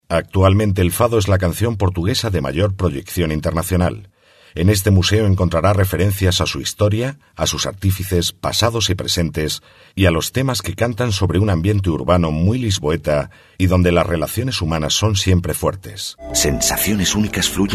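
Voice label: Spanish Spain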